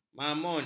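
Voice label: "mamun", Malay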